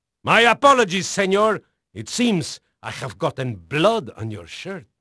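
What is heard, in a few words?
Spanish male, voice; Pirates Of The Caribbean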